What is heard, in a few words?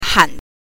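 "han", Mandarin Chinese pinyin, neutral tone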